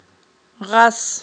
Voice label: "гъас", Aghul

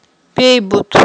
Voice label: "бейбут", aghul

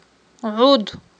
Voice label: "гIуд", Aghul

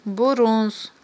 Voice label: "бурунз", aghul

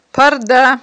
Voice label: "парда", aghul

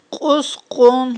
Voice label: "кьускьун", Aghul

